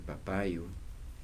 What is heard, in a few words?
"papaya", Kibembe